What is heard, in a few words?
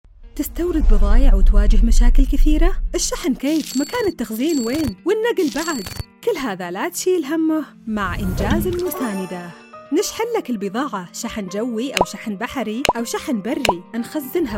english